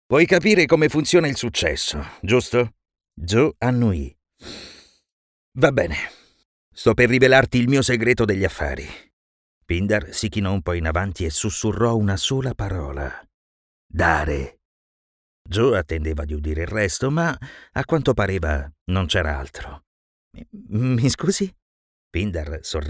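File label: Italian, male, Presentation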